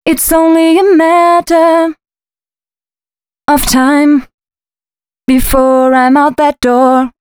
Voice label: female a 133, hooklines, Dance Ultra Voice; hooklines 131-135 BPM; Mutekki Media; Dance Ultra Voice VOL-1

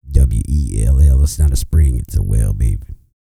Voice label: E SPEECH, Vocal Planet R&B Dance

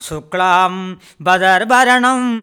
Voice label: MAN VX, Indian Traditions; USB Soundscan vol.45; AKAI

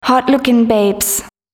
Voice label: female, Dance spoken hooks; Mutekki Media; Dance Ultra Voice VOL-1

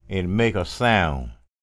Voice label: STORY, SPOKEN; Spectrasonics; Vocal Planet; Blues Soul

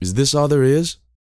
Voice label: talk, sci-fi, vocal; ILIO; Vocal Planet

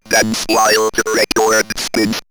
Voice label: Robot Dance While, robot vox; X-Static Goldmine

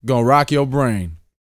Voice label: BOAST MF; Vocal Planet; R&B Dance

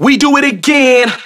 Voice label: Vocals

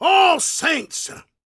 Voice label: PREACHIN, Gospel, PREACHER

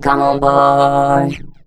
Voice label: BOY ROBOT, Funky Vocals; USB Soundscan vol.18; AKAI; COMPUTER

